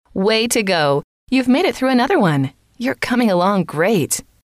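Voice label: way to go you've made it through another one, female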